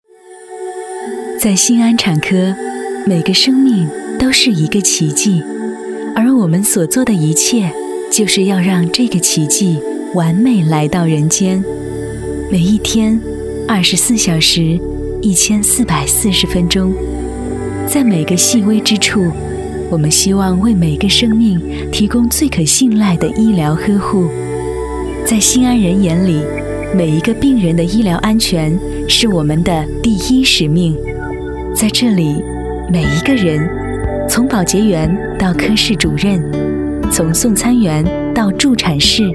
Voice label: 女 妇产科宣传 温柔信任

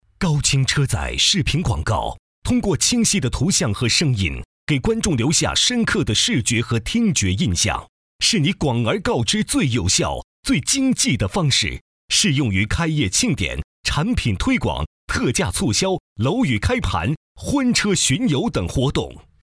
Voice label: 男声 专题类 视频广告; 高清车载视频广告

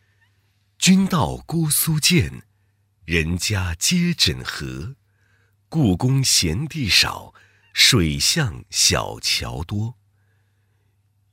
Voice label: 男, 工作汇报; 苏州市区国保单位精密三维数字化项目配音

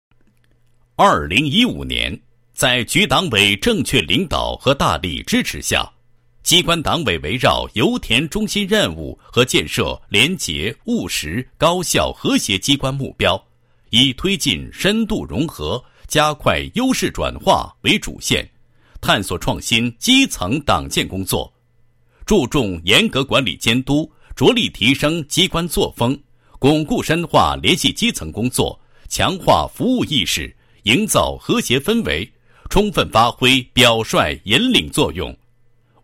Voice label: 男 汇报 工作情况汇报; 机关党委

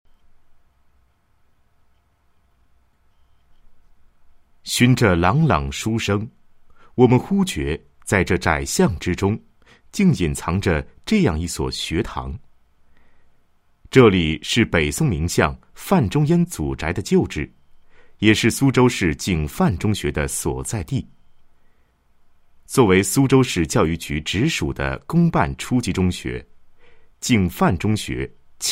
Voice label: 男 专题, 国语男声配音 温情人物专题; 景范中学

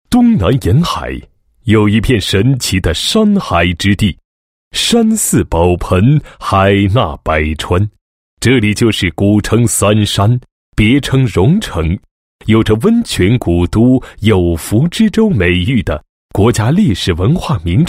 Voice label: 男 国检局 某地检验检疫局宣传, 配音案例

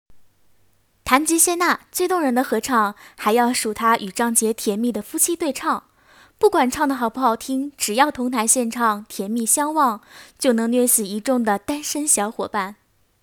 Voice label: 女,解说,综艺类

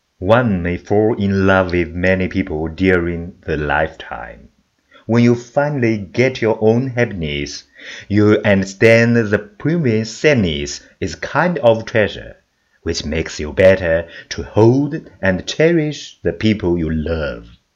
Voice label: 专题, 英文产品解说

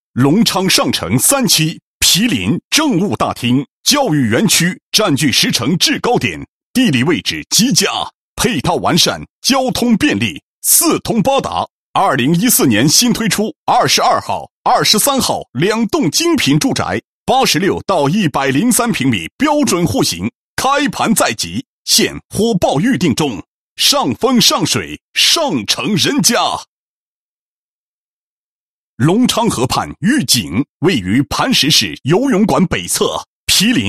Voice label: 男, 品牌, 国语男声配音, 男高音; 隆昌上城; 房产

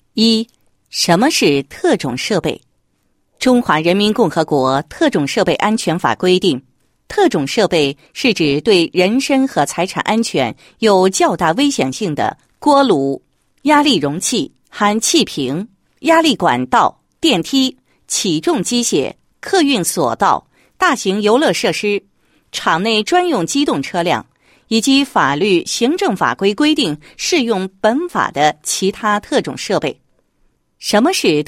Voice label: 女 解说 宣传, 配音; 特种设备安全知识宣传

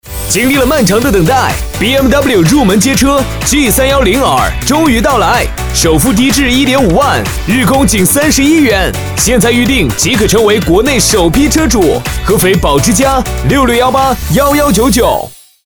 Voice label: 男, 广告配音, 年轻时尚; 宝马

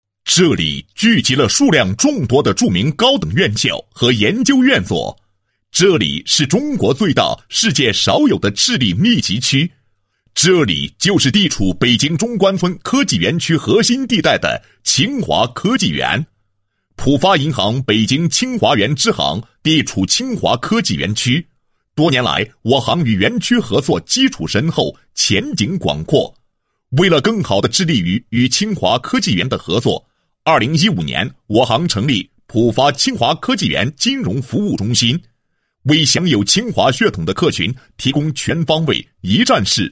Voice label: 男 解说词; 金融中心

